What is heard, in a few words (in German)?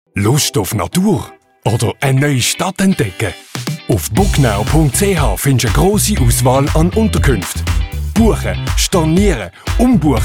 werbung; booknow